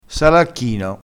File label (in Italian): "salacchino", crespinese